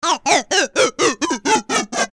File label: funny voice